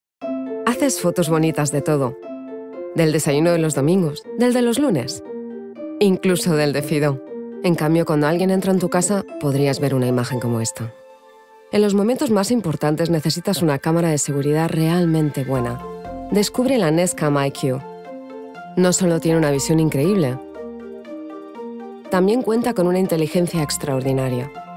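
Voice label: Spanish Europe General; GoLocalise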